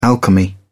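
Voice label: "alchemy", British English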